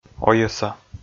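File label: "ойӧса", Komi, male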